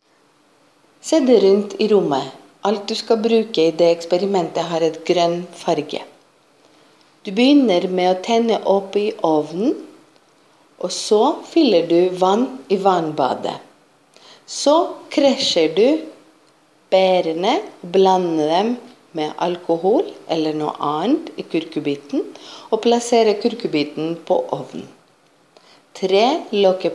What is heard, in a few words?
voice norwegian